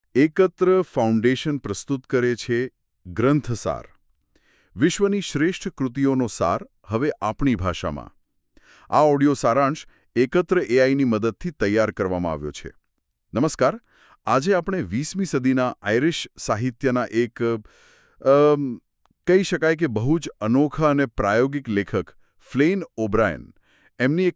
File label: Audio gujarati; at swim two birds